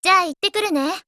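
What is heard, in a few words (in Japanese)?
go attack, Japanese; Triela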